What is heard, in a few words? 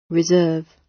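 "reserve" British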